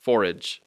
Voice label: "forage", English; Speech Pro